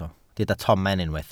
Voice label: "tomen", Welsh